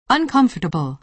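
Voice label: "uncomfortable", American English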